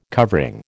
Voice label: "covering", American

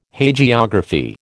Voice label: "Hagiography", American

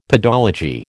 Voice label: "pedology", American

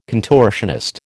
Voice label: "contortionist", American

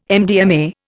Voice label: "MDMA", Indian

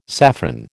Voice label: "saffron", American

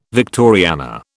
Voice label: "Victoriana", British

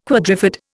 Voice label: "quadrifid", Indian

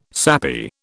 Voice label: "sappy", British

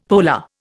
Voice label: "polar", Indian; Dictionary

